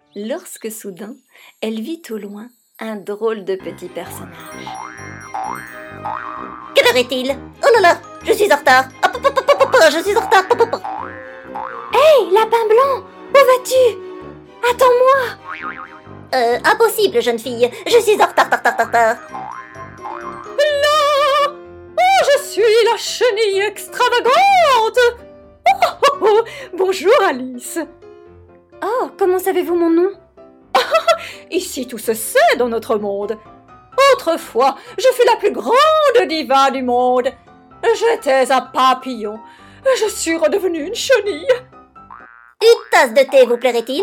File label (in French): Voix personnages; Medley personnages / Alice, le Lapin Blanc, Mme chenille, La Reine de coeur; VOIX NARRATION & CARTOONS